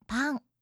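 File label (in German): "pan", japanische Mädchennamen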